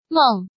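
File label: "Mèng", Chinese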